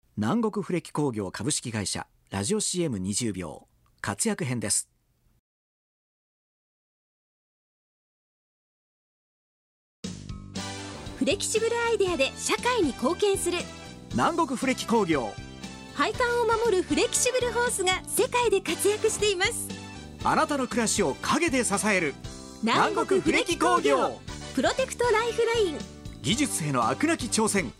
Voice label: radio